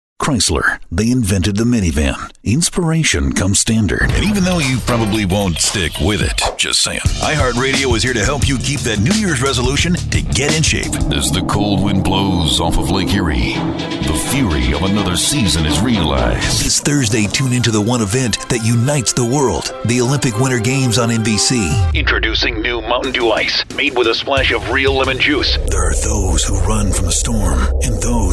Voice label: Commercial Male